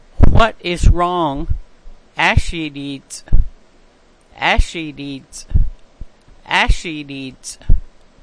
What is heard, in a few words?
What is wrong, language class dictionary